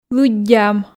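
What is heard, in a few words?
"лыддям", Komi, female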